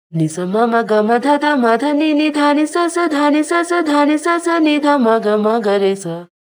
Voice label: pitch shift voice; prosoniq time factory clear scale